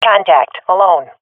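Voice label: sees one enemy, swat female; vo; agents; Source Model Packs; Counter Strike Model Pack; CS2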